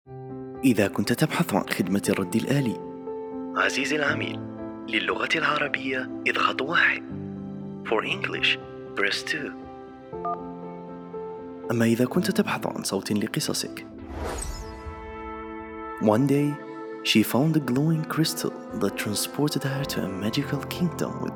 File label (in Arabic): تعليق صوتي; documentary ads voiceover